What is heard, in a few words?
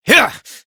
Horse Sprint Adult; Duviri